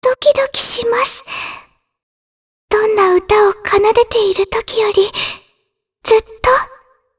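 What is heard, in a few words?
voice dia, voices heroes, Japanese; Shea; kingsraid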